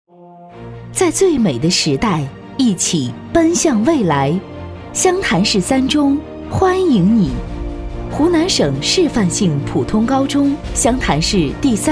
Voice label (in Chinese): 女 专题 学校; 湘潭三中